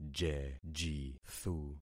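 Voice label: "jejithu", concatenated syllables; Affixing experiment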